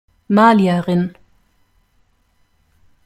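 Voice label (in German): "malierin", German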